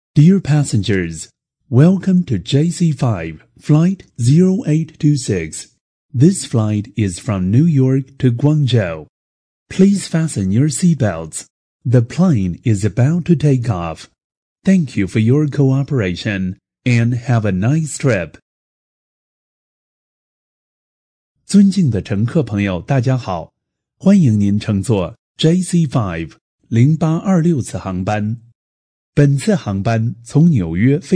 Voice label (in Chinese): 男 英文 航班播报